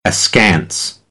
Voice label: "askance", American; AmericanBritish